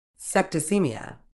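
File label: "septicaemia", British English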